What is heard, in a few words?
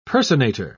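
"personator", British English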